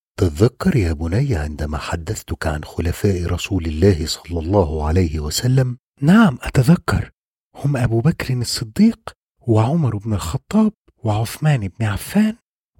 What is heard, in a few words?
Arabic Commentary VO